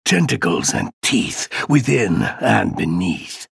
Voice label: chatter neutral; ranger

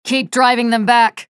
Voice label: chatter positive; nyx; frag

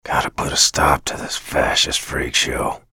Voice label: chatter neutral; bj blazkowicz

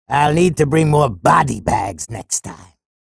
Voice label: chatter neutral; sorlag

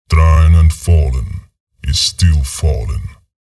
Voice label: chatter neutral, en; visor

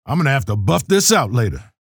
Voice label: chatter neutral; keel

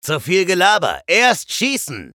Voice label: vo chatter positive; eisen; frag

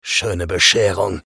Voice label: vo chatter negative; eisen; respawn

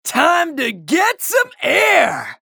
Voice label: chatter positive; anarki; frag

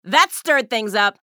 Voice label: chatter positive; athena; frag

